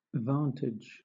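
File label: "vantage", British English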